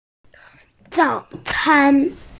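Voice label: "zǎo cān", Mandarin Chinese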